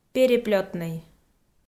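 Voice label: "переплётнӧй", Komi, female; audio dict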